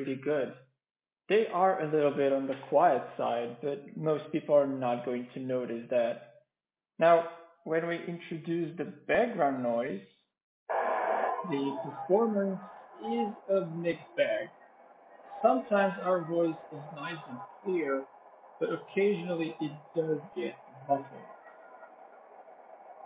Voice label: Anker Soundcore Liberty Neo mic test

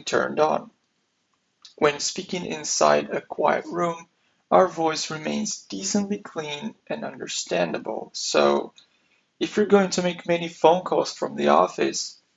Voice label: microphone test; Sennheiser Momentum True Wireless 2

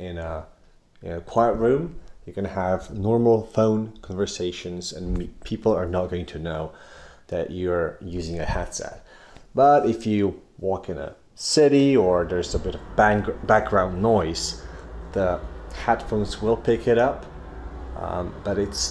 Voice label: Jabra Elite Active 75t microphone test

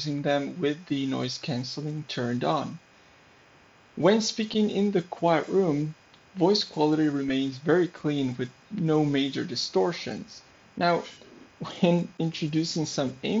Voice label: microphone test; treblab z2

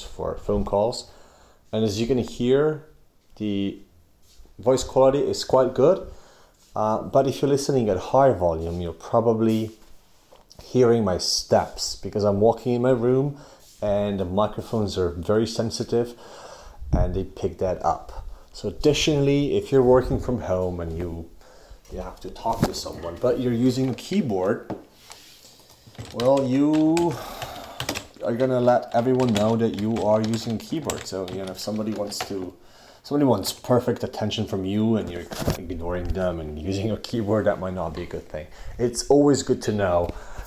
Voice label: Cambridge Audio Melomania 1 mic test

